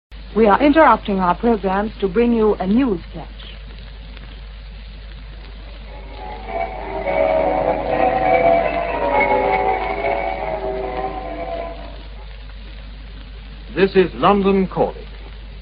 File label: Death Announcement BBC; Hitlers; bruckner third reich